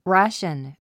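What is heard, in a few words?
"ration", English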